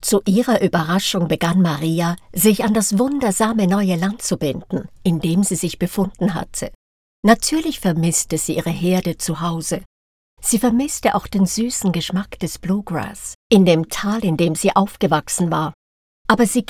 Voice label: german audiobooks; professional Swiss voice actor

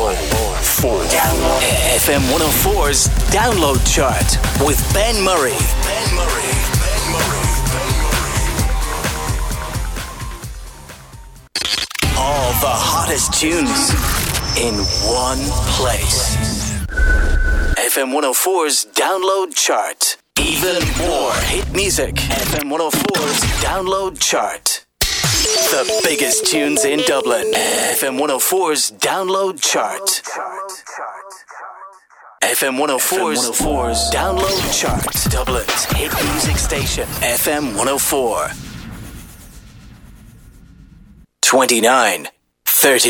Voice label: english irish radio imaging, Irish English; Imagerie radio

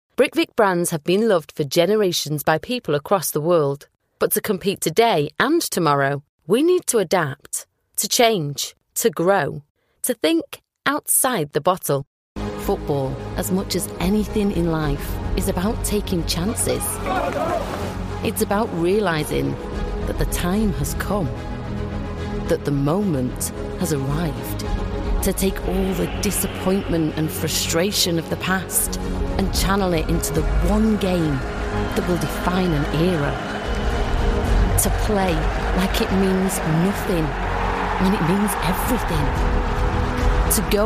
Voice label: English british corporate videos

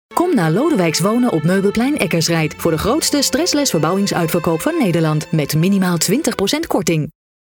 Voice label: dutch commercial; Germany

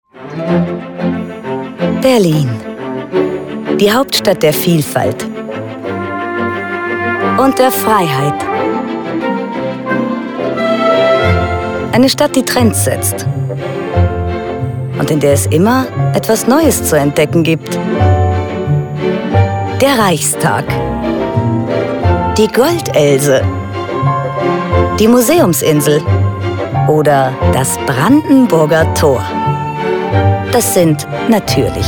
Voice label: german others

